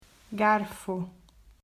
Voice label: "Garfo", Portuguese